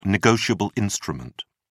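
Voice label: "negotiable instrument", British English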